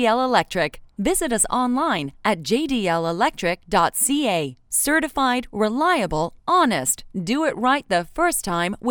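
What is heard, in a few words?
English US IVR On Hold Voicemail Greetings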